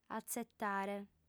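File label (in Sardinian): "atzetare", female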